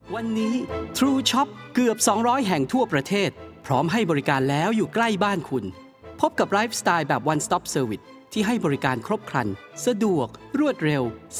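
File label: Thai commercial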